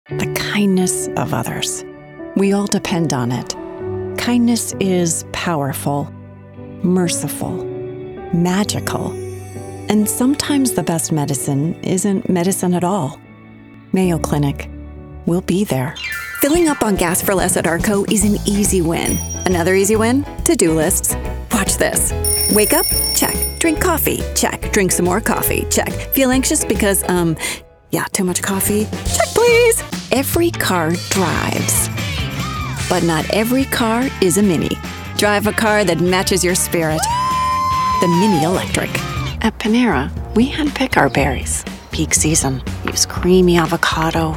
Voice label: Commercial